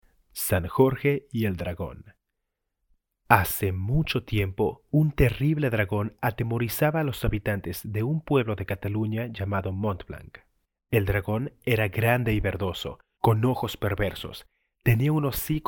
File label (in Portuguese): Espanhol; locutores nativos